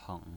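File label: "peng" third tone, pinyin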